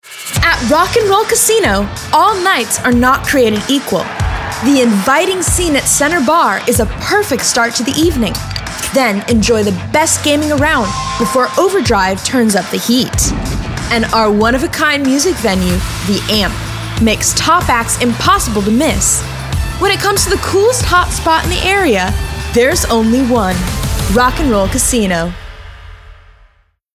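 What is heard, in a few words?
A perky voice perfect for any project! announcer, caring, classy; Rock n' Roll Casino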